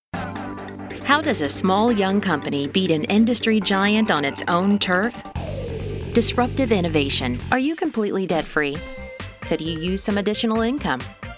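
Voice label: Narration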